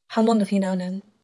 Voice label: Voice Acting es F